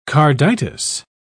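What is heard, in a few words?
"carditis", British English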